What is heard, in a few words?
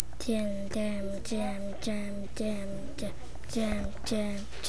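"jab", spoken word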